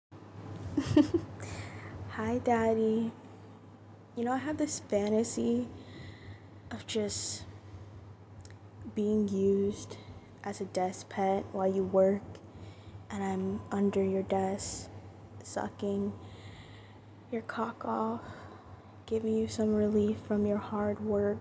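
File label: Female, music in background; F4M; Desk pet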